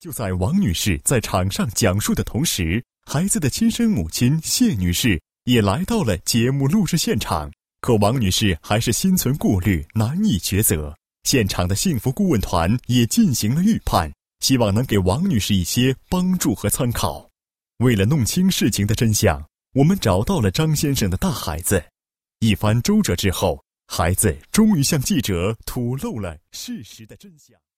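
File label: Mandarin Chinese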